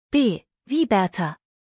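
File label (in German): "berta", German; B wie Berta; buchstabierung alt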